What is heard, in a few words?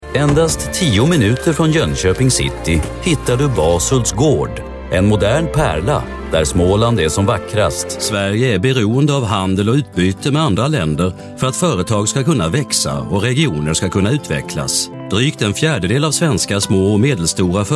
swedish male